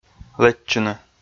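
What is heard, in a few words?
"лэччыны", Komi, male; kpv audio dict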